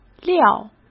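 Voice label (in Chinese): "料", Mandarin Chinese; chinese-flashcards; hsk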